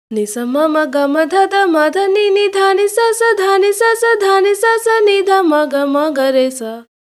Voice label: 110, timestretch, voice